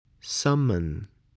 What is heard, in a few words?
"summon", spelling